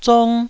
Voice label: "zong1", Taiwanese

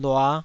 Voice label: "lua5", Taiwanese syllable

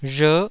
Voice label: "rher9", taiwanese

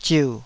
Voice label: "ziu3", taiwanese

